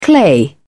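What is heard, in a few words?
"clay", English, uk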